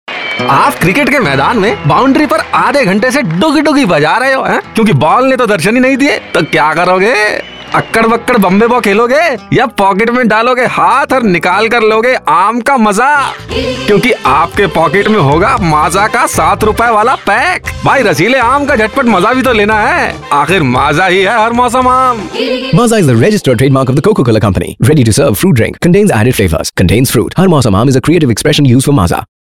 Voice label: Hindi, male voice; MAZA